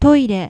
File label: "toire", Japanese